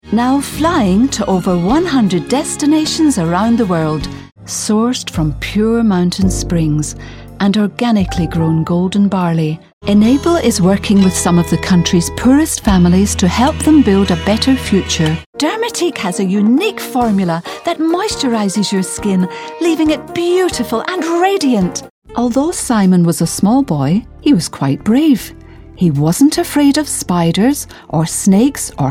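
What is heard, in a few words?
British English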